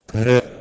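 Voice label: "bhrHeh2", Proto-Indo-European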